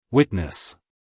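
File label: "witness", American English, male; everyone-can-use-english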